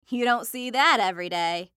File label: Cartoon Voice Female; Cartoon Voices; Blastwave